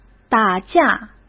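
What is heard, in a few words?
"打架", Mandarin Chinese; chinese-flashcards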